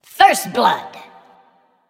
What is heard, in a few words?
narrator callouts first blood; caster battlepass1